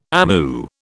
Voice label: "amu", British